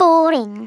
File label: "BORING", Scouser